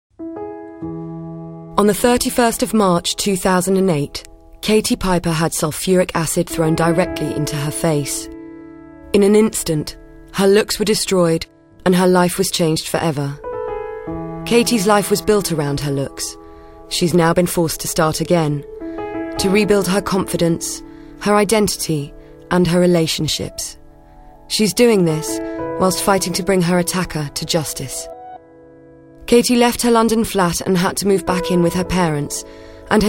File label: Documentary Read